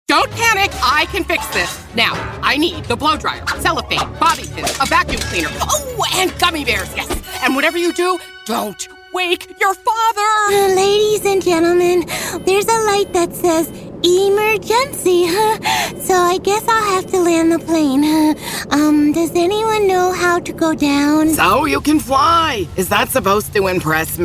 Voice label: Animation